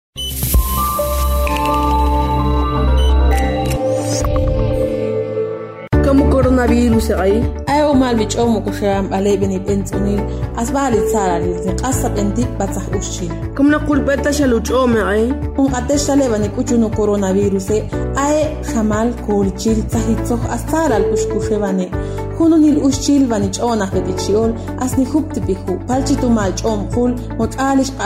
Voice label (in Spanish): Spot Radial ixil